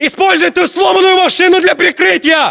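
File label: order cover car wrecked, battlechatter, Russian; localized english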